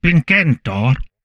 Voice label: humanoid male; Stellaris; humanoids